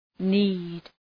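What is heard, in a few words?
"knead", English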